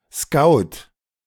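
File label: German "Scout"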